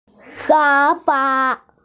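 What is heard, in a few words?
"sofa", Mandarin, hearing-impaired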